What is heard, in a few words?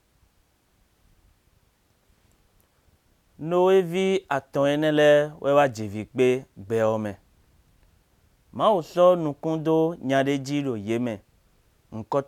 Fongbe; Ilor Abraham ton; God Calls Abraham